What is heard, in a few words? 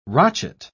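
"rochet", British English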